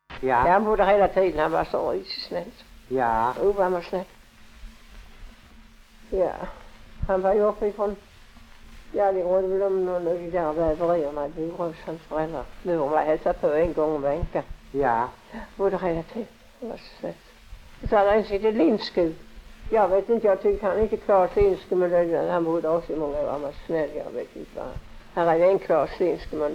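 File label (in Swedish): Lunds stad, Swedish dialect; Folkminnen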